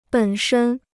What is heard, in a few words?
"本身", Chinese